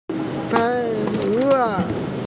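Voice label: "fei wo", Mandarin Chinese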